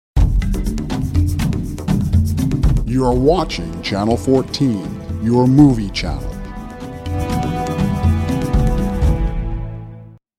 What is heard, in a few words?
TV Station ID; BTM